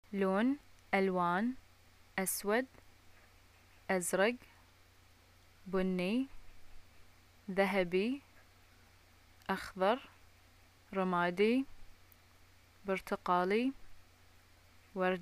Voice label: colors, arabic